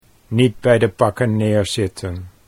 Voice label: niet bij de pakken neerzitten, Dutch

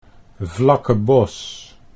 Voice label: "Vlacke Bos", Dutch

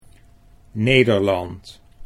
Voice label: "Nederland", Dutch

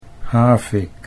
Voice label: "havik", Dutch

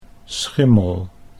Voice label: "schimmel", Dutch

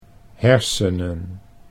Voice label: "hersenen", Dutch

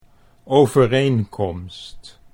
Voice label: "overeenkomst", Dutch

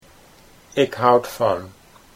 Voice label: "ik houd van", Dutch

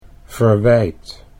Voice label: "verwijt", Dutch

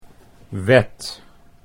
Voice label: "wet", Dutch

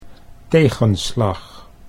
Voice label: "tegenslag", Dutch

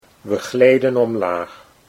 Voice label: "we gleden omlaag", Dutch

